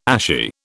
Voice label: "ashy", British